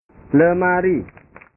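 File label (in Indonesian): "lemari", Indonesian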